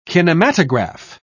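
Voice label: "kinematograph", British English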